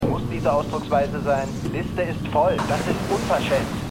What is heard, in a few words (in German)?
Telefonstimme Klinik; Dead To Me